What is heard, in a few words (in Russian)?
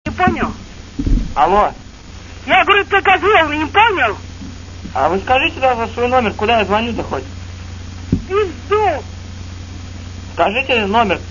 Бабка, Звонок на АТС